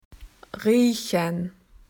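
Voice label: "to smell", Australian English; PictureDictionary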